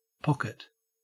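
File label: "pocket", Australian English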